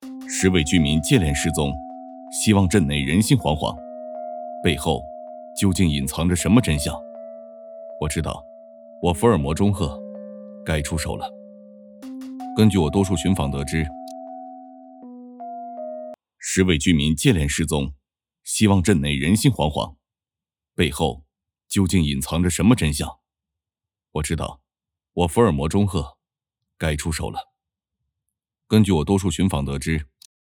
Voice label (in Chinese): 悬疑